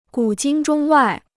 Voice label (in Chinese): "古今中外", Chinese